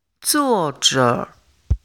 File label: chinese pronunciation e